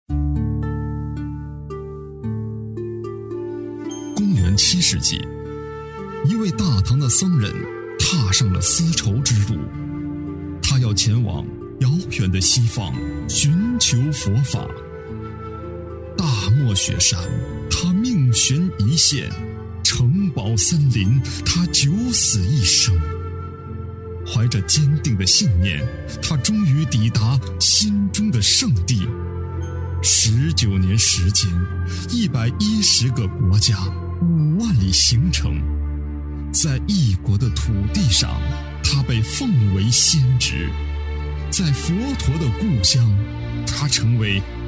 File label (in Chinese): 纪录片历史人物解说